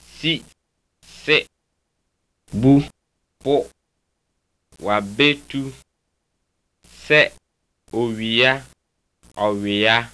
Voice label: akan; [sí̘ si̙ bu̘ bu̙ wàbé̘tu̘ sé̙ o̘wì̘á o̙wì̙á]